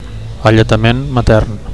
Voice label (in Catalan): "alletament matern", Catalan; breastfeeding; nursing